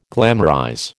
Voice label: "glamorize", American